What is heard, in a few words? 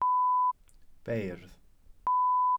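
"beirdd", Welsh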